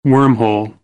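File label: "wormhole", American, English; AmericanBritish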